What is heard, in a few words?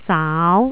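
"zaau2", Cantonese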